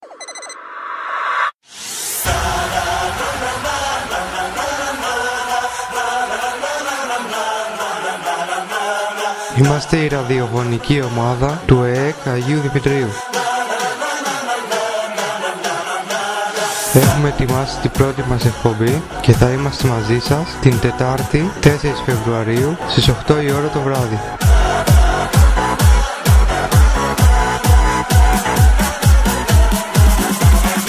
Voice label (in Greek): spot